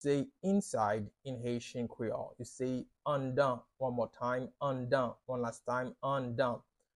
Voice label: Inside in Haitian Creole Anndan pronunciation by a Haitian teacher